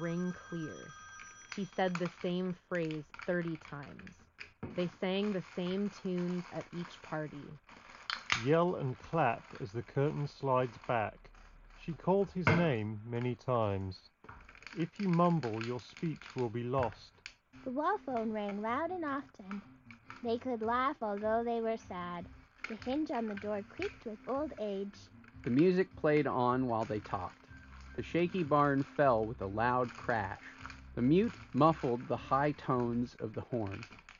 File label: Office microphone sample; Beats Studio Buds Plus